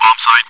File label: chitchat; svencoop